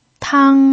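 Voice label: "tang", Mandarin Chinese pinyin, first tone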